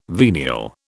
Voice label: "venial", British